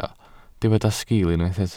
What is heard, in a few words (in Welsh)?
"sgil", Welsh